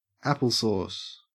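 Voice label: "applesauce", Australian English; pronunciations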